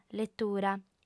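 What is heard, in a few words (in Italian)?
"letura", lingua sarda, fem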